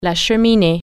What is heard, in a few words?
fireplace, french